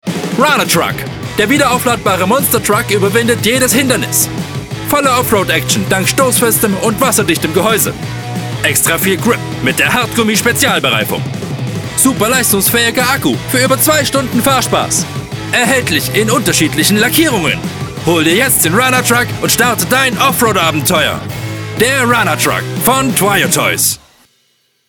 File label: german promos